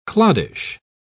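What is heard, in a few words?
"cloddish", British English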